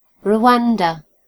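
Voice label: "rwanda", British English; gcompris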